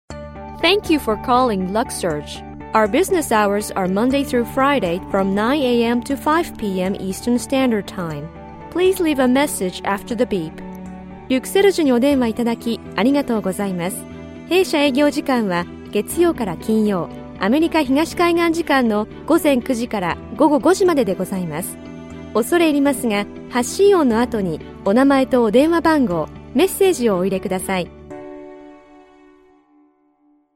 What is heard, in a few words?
Japanese